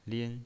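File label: "lin", pinyin, Mandarin Chinese, first tone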